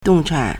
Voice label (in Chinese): "dong4chan3", Chinese